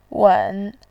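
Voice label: "wen", Mandarin Chinese, tone 3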